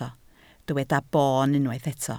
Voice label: "boon", Welsh

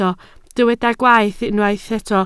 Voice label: "gwaeth", Welsh

